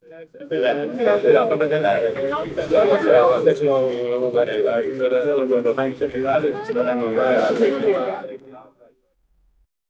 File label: group of men speaking in, language